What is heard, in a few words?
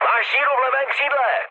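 left wing, Czech, mood low; War Thunder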